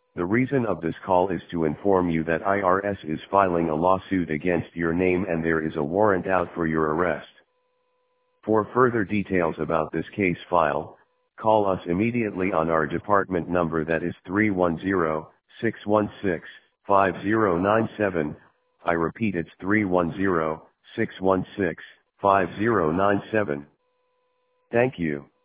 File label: irs scam voicemail sample; telephone irs scam; spokane